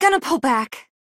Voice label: ping be back soon, female